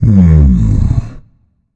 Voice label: nv thinking; Smite; cabrakan